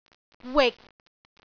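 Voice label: "wik6", Cantonese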